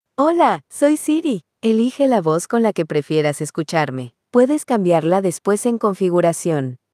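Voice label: Mexican Spanish; iOS 26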